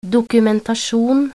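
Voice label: "dokumentasjon", Norwegian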